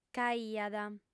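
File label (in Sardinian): "nc'aiat", female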